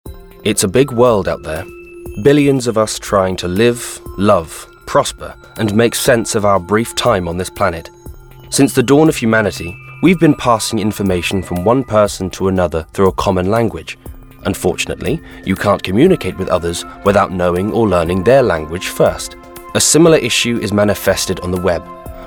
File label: Corporate